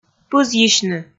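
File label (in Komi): "пызйысьны", Komi, female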